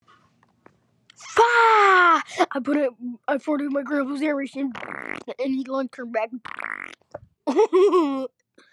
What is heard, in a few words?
very funnny